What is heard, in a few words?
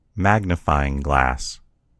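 "magnifying glass", US English; gcompris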